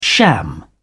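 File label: "sham", British English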